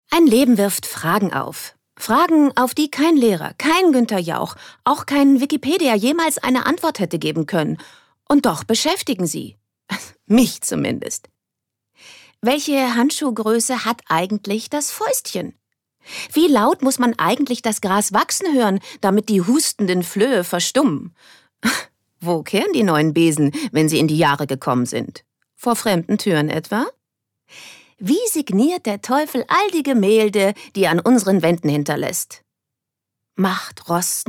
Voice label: Schauspiel Lebendig

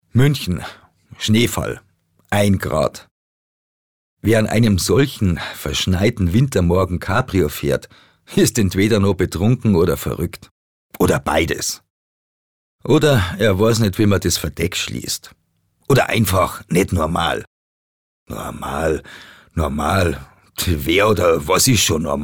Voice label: Dialekt Bayerisch

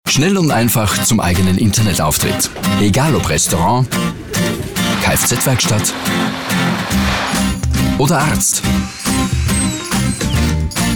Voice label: AT Werbung Freundlich

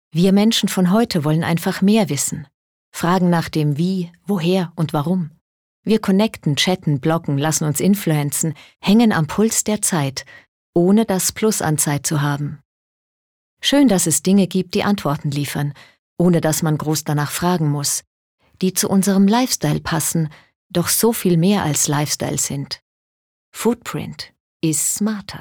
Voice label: Verbindlich; Foodprint

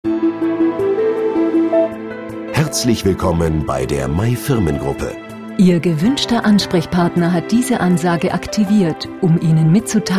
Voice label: Kommentar Freundlich

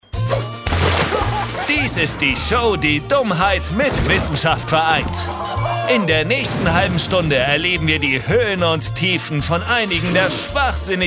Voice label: Kommentar Neutral

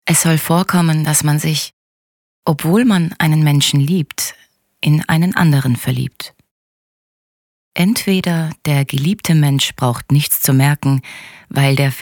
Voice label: Schauspiel Poetisch

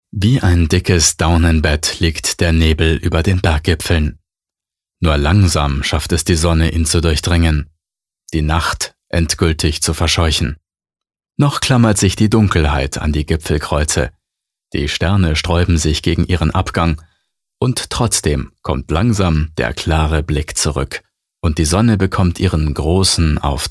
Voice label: Schauspiel Sanft

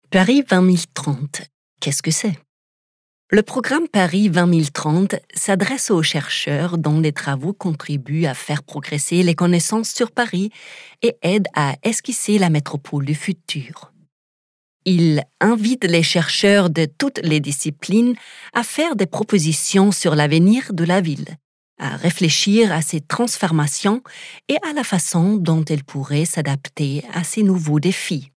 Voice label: FR Freundlich; Paris

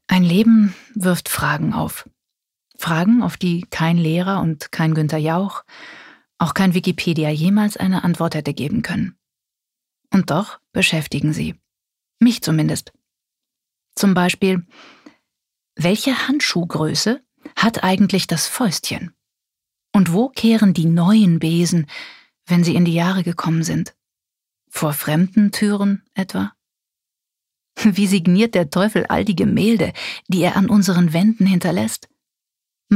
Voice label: Schauspiel Sanft

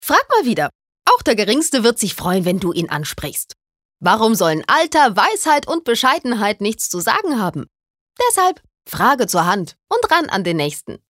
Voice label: Werbung Frech

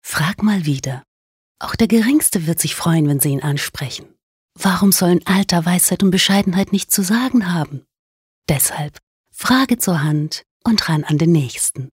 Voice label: Werbung, Sanft

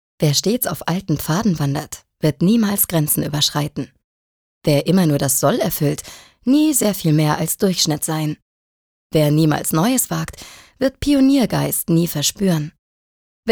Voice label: Freundlich

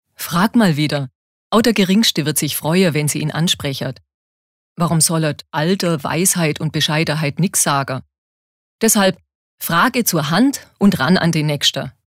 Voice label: Dialekt Schwaebisch

